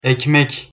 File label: "ekmek", Turkish